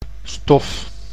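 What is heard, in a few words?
"stof", Dutch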